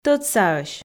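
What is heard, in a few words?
"тӧдсаӧсь", Komi, female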